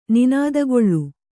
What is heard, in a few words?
"ನಿನಾದಗೊಳ್ಳು", Kannada